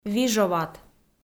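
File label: "вижоват", Komi, female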